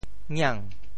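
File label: "ngiang2", Teochew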